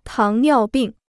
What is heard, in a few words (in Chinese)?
"糖尿病", Chinese